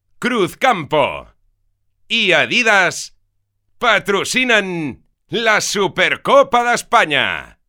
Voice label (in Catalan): Patrocini SPOT TV Catala VO